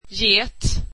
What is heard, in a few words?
swedish goat